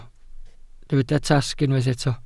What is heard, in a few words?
"tasg", Welsh